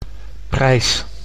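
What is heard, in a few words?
"prijs", Dutch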